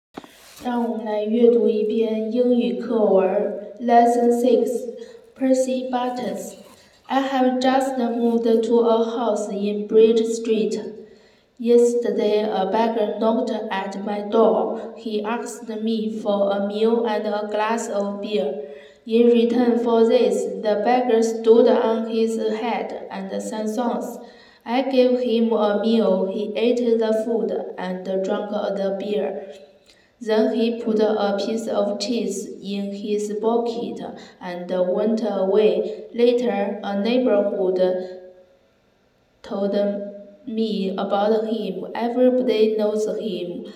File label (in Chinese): 英语 课文 朗读